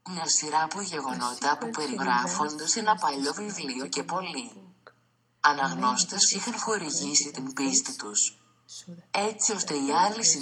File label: greek murmur